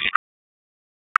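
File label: Dispatch 1, P25; WSO DISP 1; wake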